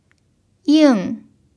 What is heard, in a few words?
"ying4", Mandarin pinyin